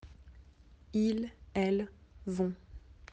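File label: "aller", French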